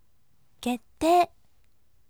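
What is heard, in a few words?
"kettei", gentle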